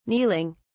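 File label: "kneeling", English, British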